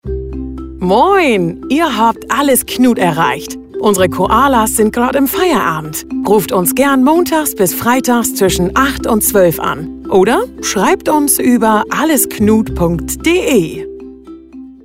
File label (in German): Hamburgerisch, Anrufbeantworter Ansagen